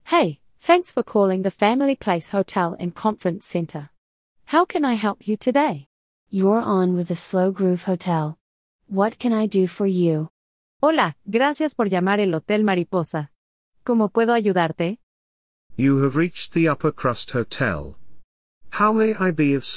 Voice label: Brand Voice Samples